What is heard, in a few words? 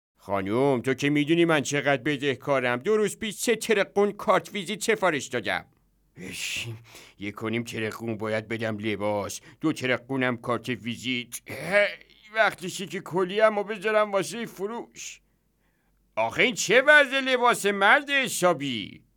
narrator